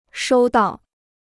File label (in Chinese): "收到", Chinese